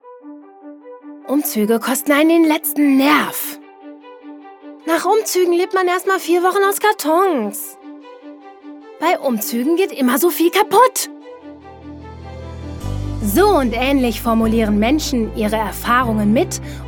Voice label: Imagefilm Umzugsfirma

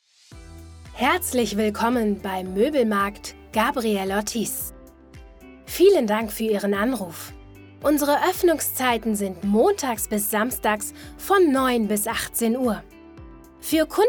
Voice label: Telefonansage sachlich

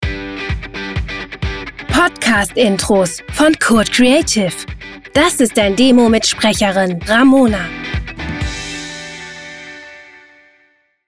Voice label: Podcast Intro

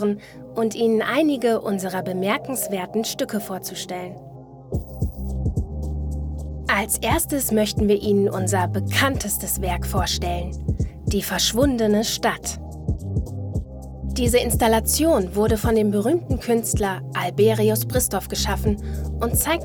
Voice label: Audioguide Museum

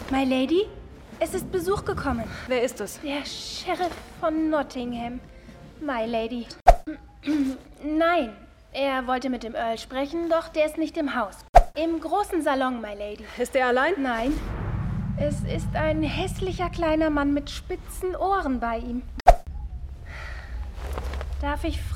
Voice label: Erwachsenenhörspiel Rolle Bedienstete; PuzzleCat Entertainment

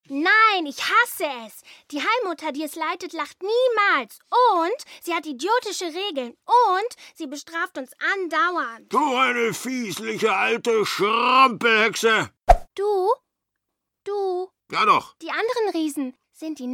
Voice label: Kinderhoerspiel Rolle kl. Maedchen, maennliche Stimme

